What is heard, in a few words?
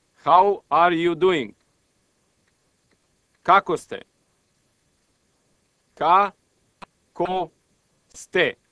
Serbo Croatian Greet